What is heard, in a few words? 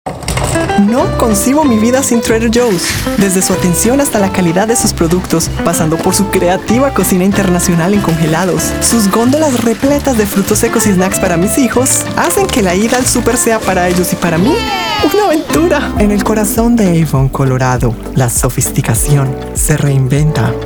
COMMERCIAL